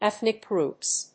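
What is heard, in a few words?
"ethnic groups", English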